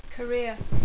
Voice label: "career", English